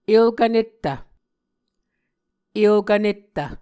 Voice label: "elkv nettv", muscogee